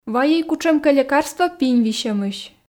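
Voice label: "вайӧй кутшӧмкӧ лекарство пинь висьӧмысь", Komi, female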